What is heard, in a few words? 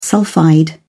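"sulphide", British English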